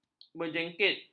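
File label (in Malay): "menjengkit", Malay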